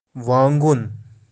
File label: "waangun", kashmiri